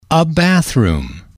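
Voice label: "a bathroom", English, male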